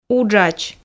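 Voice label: "уджач" Komi, female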